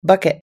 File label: "bucket", us english